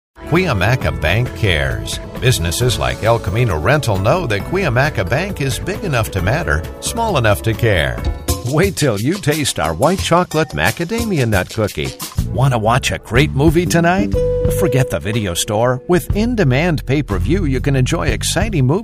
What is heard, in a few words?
COMMERCIALS, male voice talent